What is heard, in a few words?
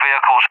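"vehicles", English, UK; Target; DCS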